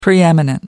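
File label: "preeminent", English, British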